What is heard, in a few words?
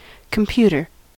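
"computer", US English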